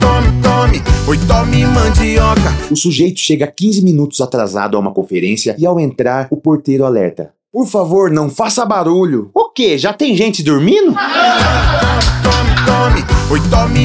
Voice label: spot piada; rei da mandioca

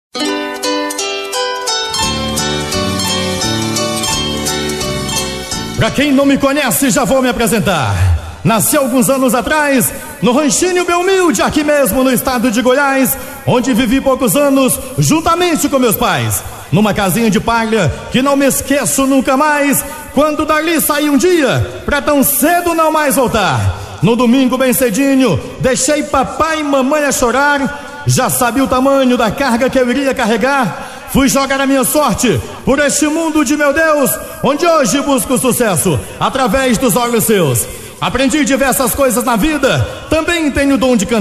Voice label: locutor apresentacao

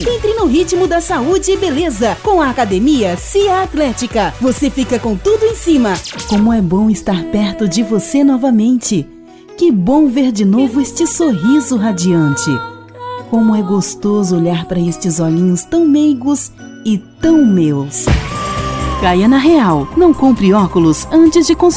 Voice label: locucao brasil

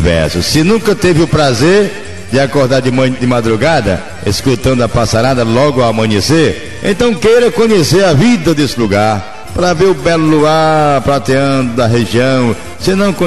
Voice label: locutor ao vivo programa

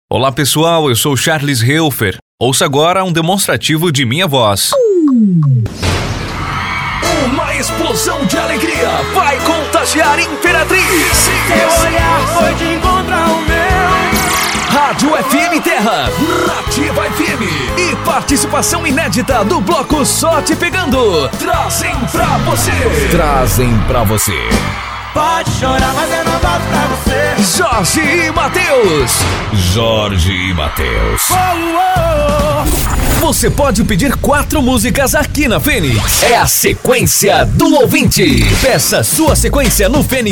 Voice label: locutor

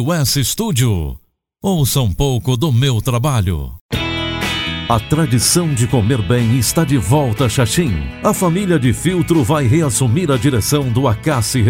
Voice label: locutor

